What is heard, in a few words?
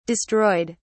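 "destroyed", British English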